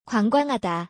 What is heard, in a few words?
"gwangwanghada", Korean word